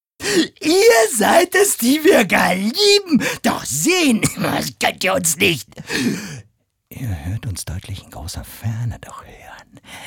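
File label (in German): psycho, Männer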